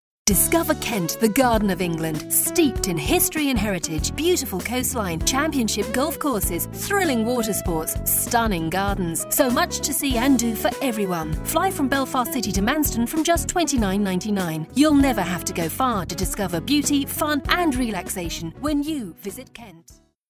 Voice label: commercials